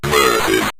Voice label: "versus", Synth Voice; Kenney's Sound Pack